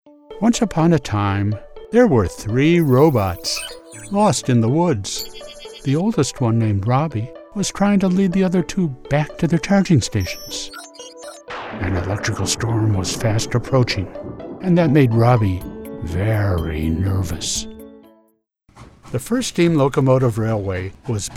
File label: Audiobook Narration